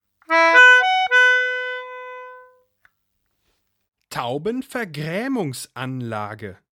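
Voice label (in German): "Taubenvergraemungsanlage", German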